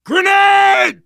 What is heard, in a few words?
grenade, survivor voice, biker; Left 4 Dead 2